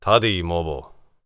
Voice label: warrior conversation emotion neutral